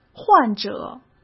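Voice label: "患者", Mandarin Chinese; hsk; chinese flashcards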